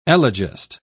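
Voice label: "elegist", British English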